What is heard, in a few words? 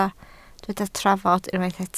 "trafod", Welsh